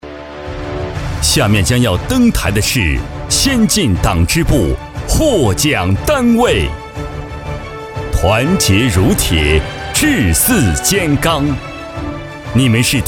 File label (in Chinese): 男 颁奖